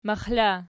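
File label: "maxla" nominative, Archi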